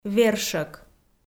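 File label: "вершӧк", Komi, female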